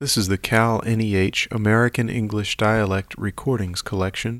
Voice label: Conversation with 59 year old white male Arkansas, american english dialect